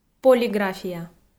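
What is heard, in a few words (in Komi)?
"полиграфия", Komi, female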